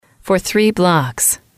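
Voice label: "for 3 blocks", English, female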